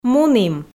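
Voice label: "мунім", Komi, female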